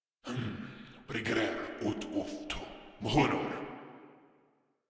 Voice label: Patrol Grineer Male, Barks, Battle